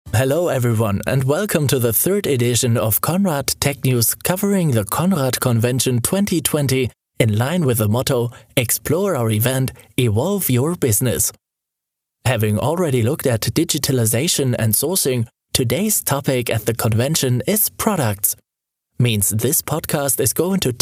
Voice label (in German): Sprecher Englisch